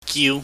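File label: "giu", Cantonese, mid level tone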